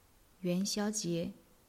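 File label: "yuan2xiao1jie2", Chinese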